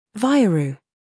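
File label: "humor", British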